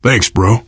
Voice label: thanks, player survivor voice biker; source engine; left 4 dead 2

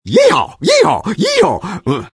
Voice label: taunt cong fun; engy; tf; taunts

